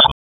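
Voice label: Police District Dispatch, p25; wake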